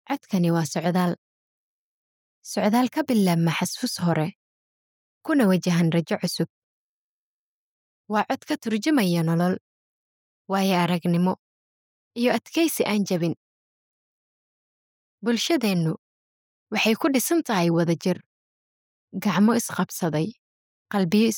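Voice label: Somali female voice over, narrative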